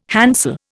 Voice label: "handsel", Indian

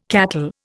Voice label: "cattle", Indian

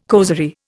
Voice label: "causerie", Indian

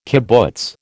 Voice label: "kibbutz", American

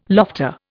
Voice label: "lofter", Indian

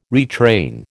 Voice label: "retrain", American

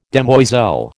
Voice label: "demoiselle", American

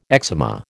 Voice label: "eczema", American

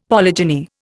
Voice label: "polygyny", Indian; Dictionary

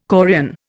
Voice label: "chorion", Indian

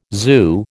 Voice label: "zoo", American

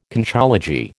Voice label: "Conchology", American

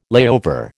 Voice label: "layover", American

